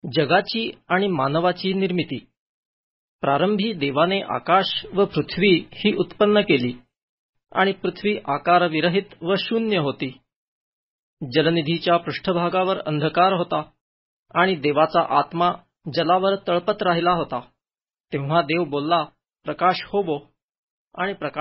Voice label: full bible pune marathi; bible mar marathi pune audio bible